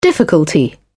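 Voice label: "difficulty", en, British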